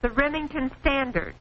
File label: remington standard, Quirky Female Typing Instructor; VOCALS and SPOKEN WORD